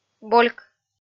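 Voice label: "больк", Komi-Zyrian, female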